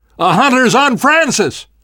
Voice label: hunter pounced, survivor voice namvet; Left 4 Dead 2; left4dead2 dlc3